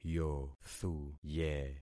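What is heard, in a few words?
"yothuye", concatenated syllables; Affixing experiment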